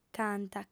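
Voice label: "canta", female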